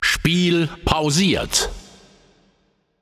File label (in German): Announcer German Global Game Paused